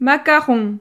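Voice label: "macaron", French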